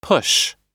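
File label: "push", American English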